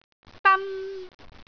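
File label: "dan1", Cantonese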